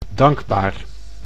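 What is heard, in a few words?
"dankbaar", Dutch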